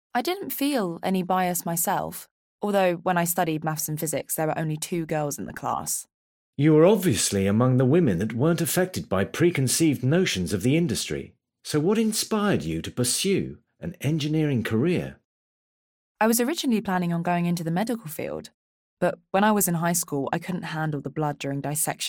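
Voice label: British English, Corporate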